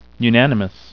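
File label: "unanimous", English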